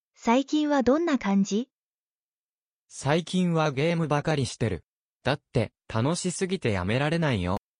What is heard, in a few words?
anime, Natural Japanese Conversations; "How have you been?" in Japanese